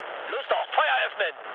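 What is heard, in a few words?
German attack position mood low, speech; War Thunder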